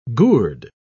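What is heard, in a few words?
"gourde", English, British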